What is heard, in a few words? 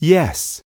"yes", spanish